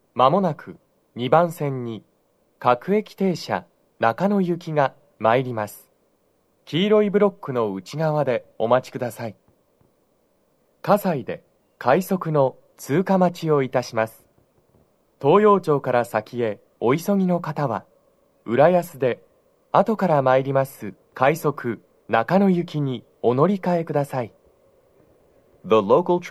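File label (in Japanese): 接近放送, 男声, 放送は「各駅停車 中野行き」です。葛西で、快速 中野行きの通過待ちを致します。 TOSHIBAでの収録です。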